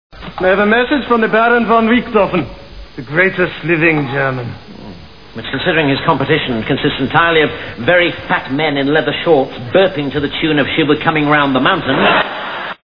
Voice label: german